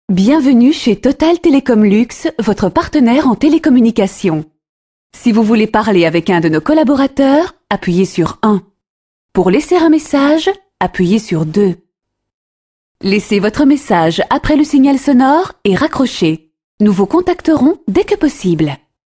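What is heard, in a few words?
French, female, telecom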